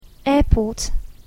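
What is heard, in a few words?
"airport", English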